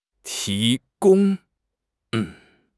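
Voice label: "ti2 gong1", Mandarin Chinese; HSK4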